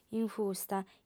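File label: "infusta", female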